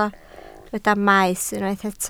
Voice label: "maes", Welsh

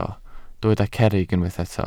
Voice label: "cerrig", Welsh

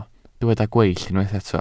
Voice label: "gweill", Welsh

